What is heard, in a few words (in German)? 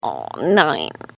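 "oh nein", German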